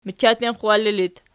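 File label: "machattenxwalli" locative, Archi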